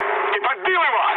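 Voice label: player killed enemy, mood low, Russian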